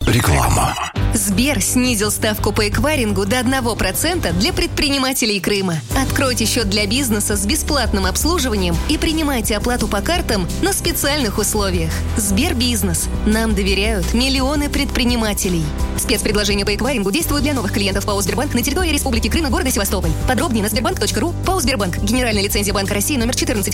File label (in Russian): Mestnyj reklamnyj blok; Russkoe radio YAlta 105.8 MGc